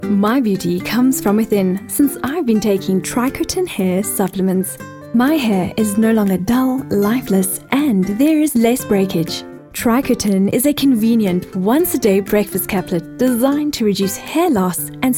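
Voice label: English South African narration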